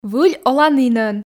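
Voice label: "выль оланінӧн", Komi, female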